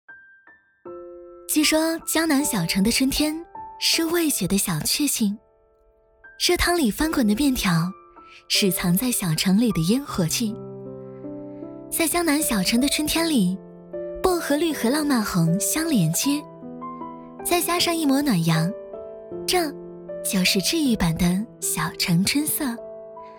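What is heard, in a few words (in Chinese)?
女国大音-VLOG; 文旅配音; 房琪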